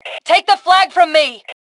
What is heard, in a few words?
Flag Take, Female; T2 Female 03